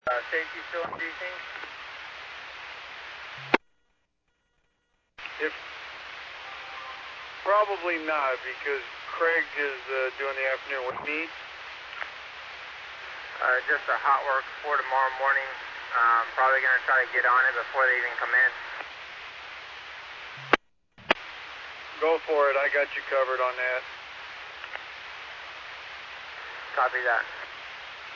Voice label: Medevac, scanner; NativeAirAmb

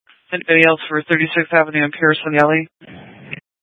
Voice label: Police Dispatch

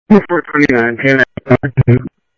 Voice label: Tempe Police, scanner; SimulcastF-Tempe

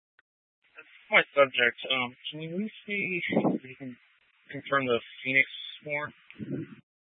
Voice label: Scottsdale Police, Simulcast

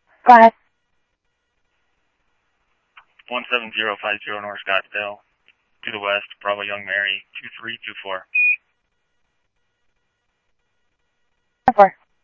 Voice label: Scottsdale PD Scottsdale Police, scanner audio; SCTSDLE PD - PD2; ThompsonPeakHigh

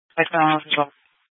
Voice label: Sheriffs Office, scanner

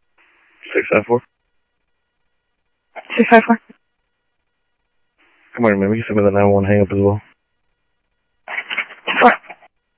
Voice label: scanner audio trunk

